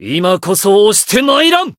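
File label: variable chant, Japanese; shion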